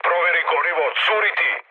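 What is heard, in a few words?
Serbian tank damaged mood med; speech; War Thunder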